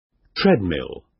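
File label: "treadmill", British English; Design and innovation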